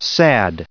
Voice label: "sad", en-us